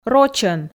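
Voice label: "рочӧн" Komi, female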